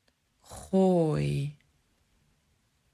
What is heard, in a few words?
"Gooi", Dutch